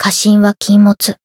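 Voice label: Voice Japanese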